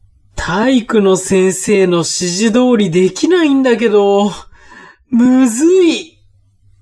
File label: man